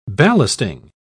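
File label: "ballasting", British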